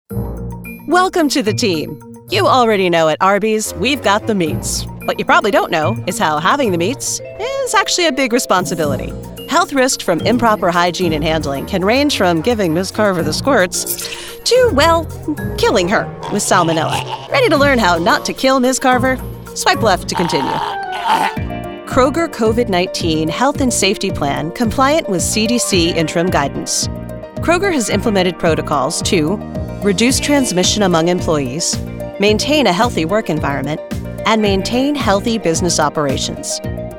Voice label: e-learning, voiceovers